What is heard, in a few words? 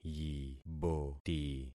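"yiboti", concatenated syllables; Affixing experiment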